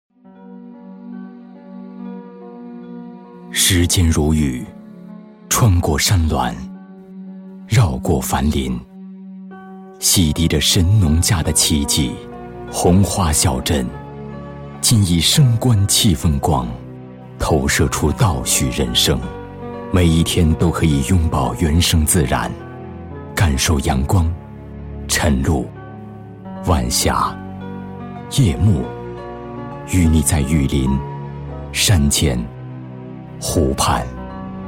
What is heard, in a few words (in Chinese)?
男 优雅唯美 成熟 亲切 舒缓 磁性 轻柔; 红花小镇